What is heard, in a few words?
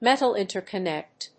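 "metal interconnect", English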